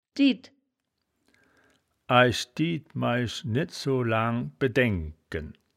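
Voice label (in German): "died", wittlicher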